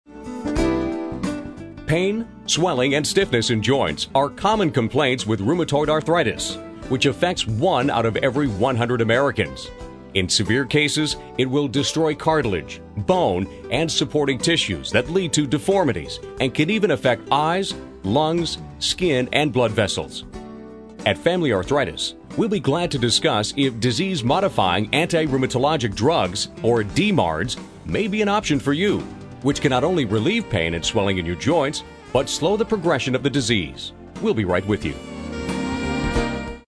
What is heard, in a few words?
Medical Office Male On Hold